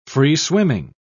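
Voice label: "free-swimming", British English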